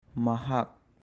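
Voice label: "Mahag" Manipuri; He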